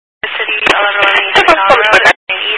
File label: Highway Patrol, scanner; DPS